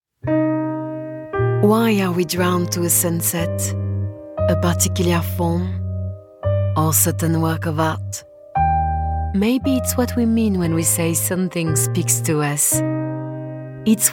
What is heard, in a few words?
french voice english with a french accent